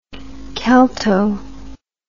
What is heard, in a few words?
"celto", English